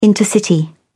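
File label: "intercity", English, British English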